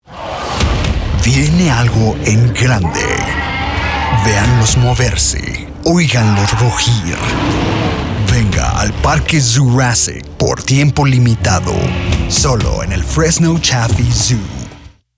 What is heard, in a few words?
Radio Spanish; Zoorassic Park